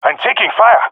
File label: taking fire, jungle male epic; Source Model Packs; Counter Strike Model Pack; CS2